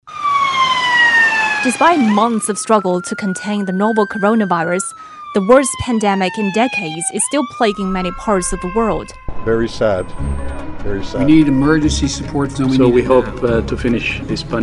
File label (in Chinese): 英文频道节目宣传片花